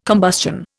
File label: "combustion", Indian